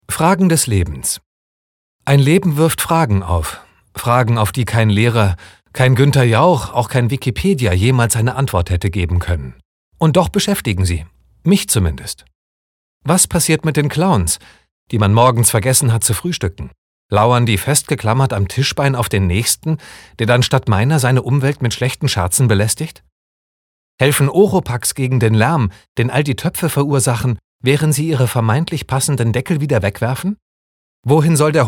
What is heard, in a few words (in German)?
Schauspiel Freundlich